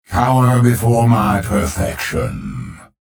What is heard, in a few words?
chatter positive; clutch; frag